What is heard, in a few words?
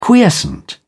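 "quiescent", British English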